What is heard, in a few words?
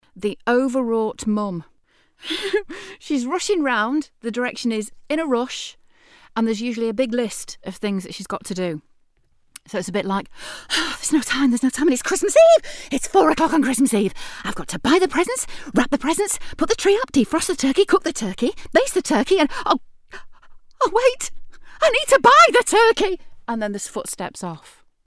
stressed christmas wife